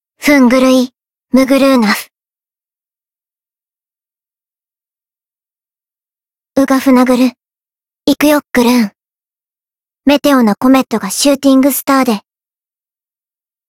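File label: Voice ex limit break, Japanese; nagi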